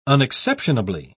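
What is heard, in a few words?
"unexceptionably", British English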